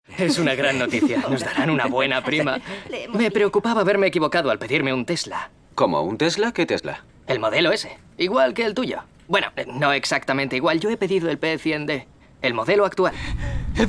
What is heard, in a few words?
male spanish acting